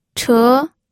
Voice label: "che2", pinyin